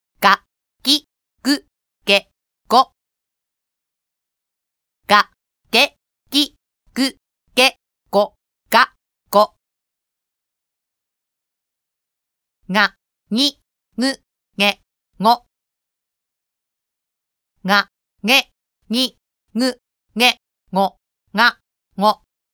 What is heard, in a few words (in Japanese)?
濁音・鼻濁音, japanese pronunciation